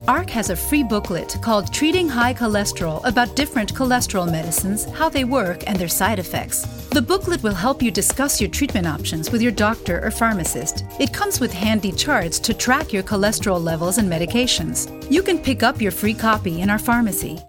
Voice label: English Cholesterol PSA; US HHS

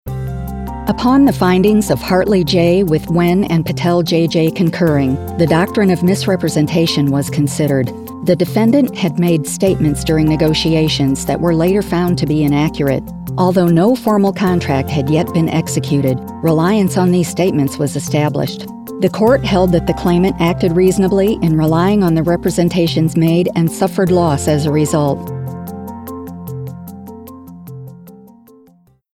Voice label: Legal Narr CASE NOTES

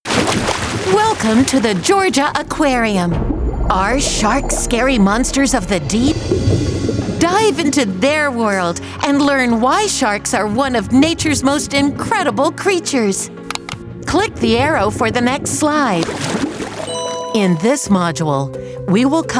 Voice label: E-Learning

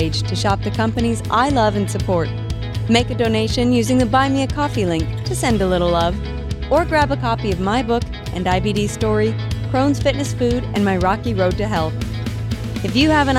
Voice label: Podcast Outro

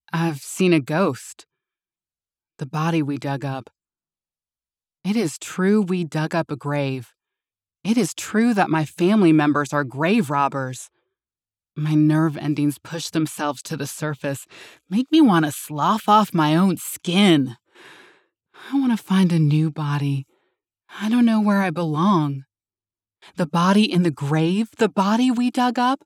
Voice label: 1st Person Southern Dialogue; M/F Southern Dialogue

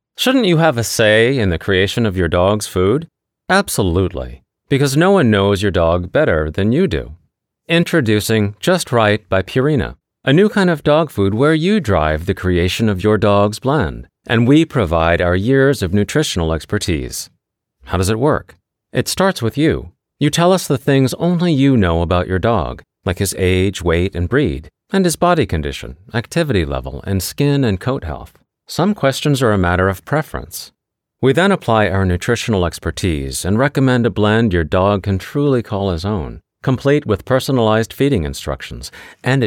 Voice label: enticing; Purina Just Right

